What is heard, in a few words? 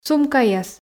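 "сумкаяс", Komi, female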